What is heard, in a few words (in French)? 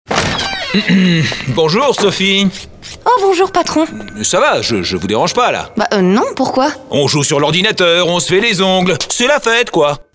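voix patron jouée; Seat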